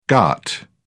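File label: "ghat", British English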